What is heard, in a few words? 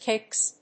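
"kicks", English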